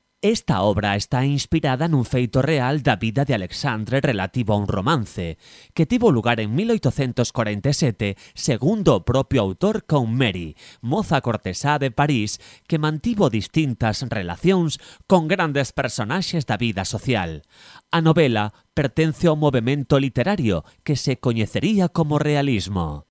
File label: locutor gallego galician voiceover